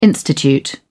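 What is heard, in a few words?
"institute", British English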